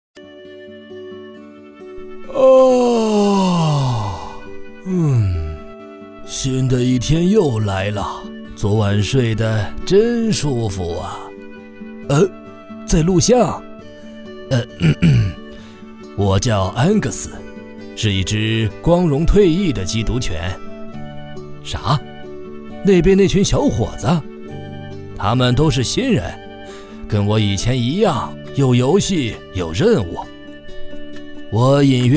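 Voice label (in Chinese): 国语 男声 人物角色-老年声-动画片 低沉 娓娓道来 素人; 城市专题; 缉毒犬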